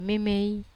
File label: "memei", Wamesa